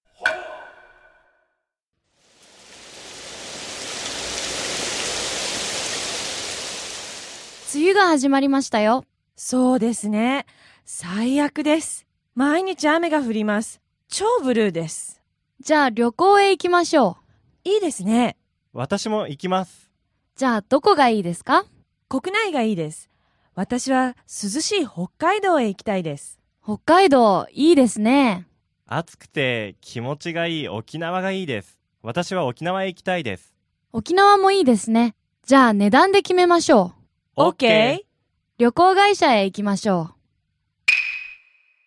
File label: dialog; JapanesePod101; Beginner Season 1